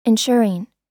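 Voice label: "insuring", American English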